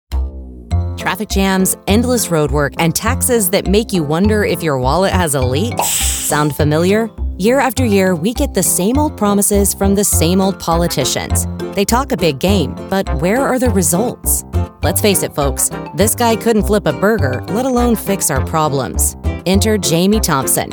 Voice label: Political, female voice over

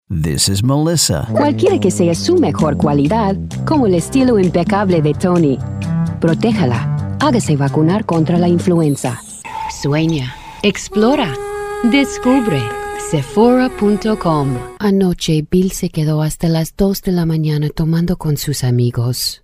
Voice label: spanish female; international voice demos

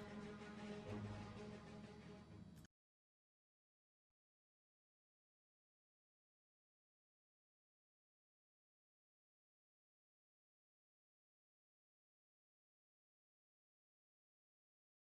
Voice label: Nightly News Open, Auditions; NBC

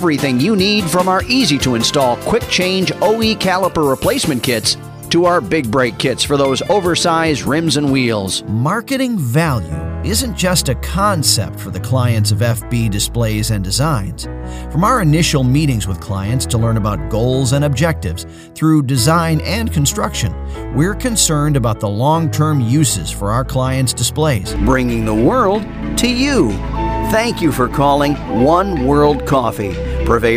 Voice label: On Hold

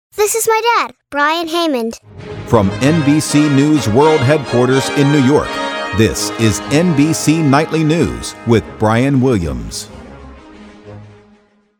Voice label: News Open; NBC Nightly News

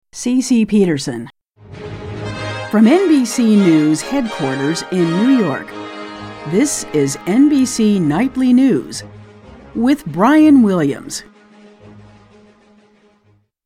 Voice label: Nightly News Open, Auditions; NBC

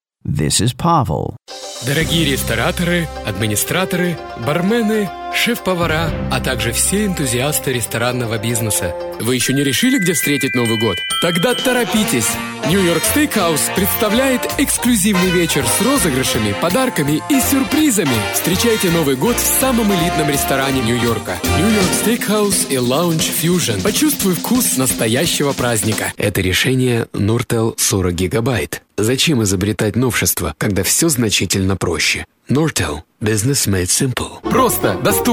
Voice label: russian male; international voice demos

